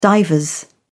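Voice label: "divers", British English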